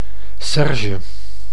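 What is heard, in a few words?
"serge", Dutch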